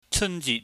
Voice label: "cheunjit", Cantonese

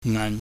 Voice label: "ngan", Chinese

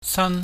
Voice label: "san", Chinese

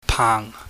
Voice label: "paang", Chinese

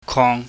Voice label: "kwong", chinese

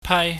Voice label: "pai", pingyam

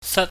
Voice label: "sat", pingyam

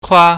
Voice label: "kwa", chinese

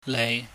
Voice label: "lei", chinese

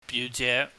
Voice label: "biuje", Cantonese

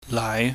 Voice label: "lai", chinese

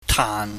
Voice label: "taan", Chinese

